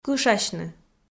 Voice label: "кышасьны" Komi

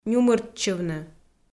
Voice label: "нюмыртчывны", Komi

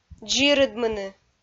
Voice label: "дзирыдмыны", Komi

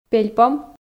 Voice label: "пельпом", Komi

